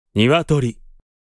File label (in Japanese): "ニワトリ", Japanese